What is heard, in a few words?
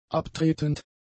"abtretend", german; adjectives